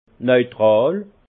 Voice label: "neutral", Alsace; vin; neutre

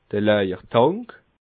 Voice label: "laawertank", alsace

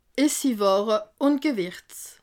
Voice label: "essiware", alsace

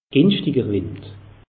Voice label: "gingschtiger wind", alsace; meteo

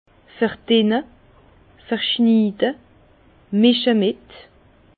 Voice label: "verdinne", Bas Rhin; vin

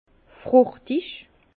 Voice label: "fruchtig", Bas Rhin; fruité; vin

